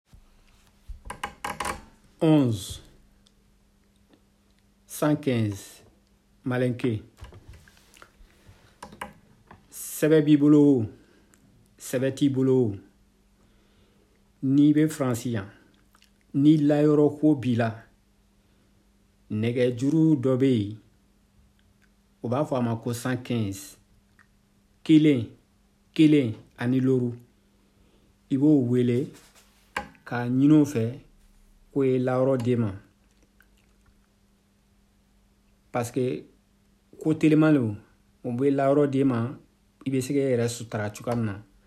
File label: malinke